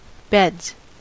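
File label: "beds", US English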